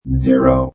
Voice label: Robot Voice "0"